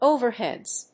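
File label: "overheads", English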